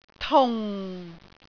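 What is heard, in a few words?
"tung4", Cantonese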